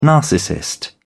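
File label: "narcissist", British English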